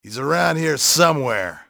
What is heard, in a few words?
"around here", english male; XBOX; enemy